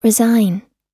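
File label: "resign", US English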